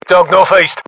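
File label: threat dog north east, battlechatter British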